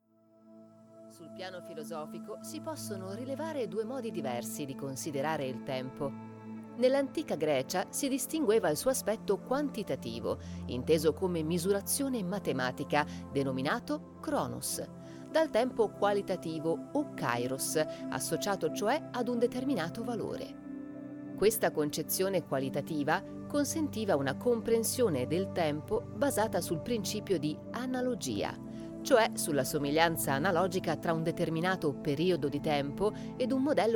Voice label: italian documentaries

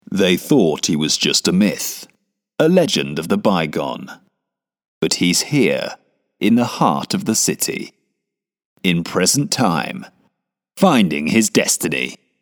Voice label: english british movie trailers; locutor de doblaje en inglés (inglés del sur británico)

